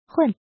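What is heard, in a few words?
"hun" fourth tone, pinyin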